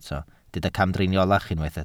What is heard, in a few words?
"camdriniolach", Welsh